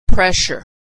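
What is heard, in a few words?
"pressure", American English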